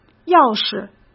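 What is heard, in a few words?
"要是", Mandarin Chinese; chinese-flashcards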